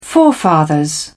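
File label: "forefathers", en, uk British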